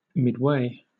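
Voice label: "midway", British English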